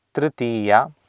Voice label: "trtiiya", Sanskrit